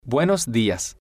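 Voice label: "buenos Dias", spanish, male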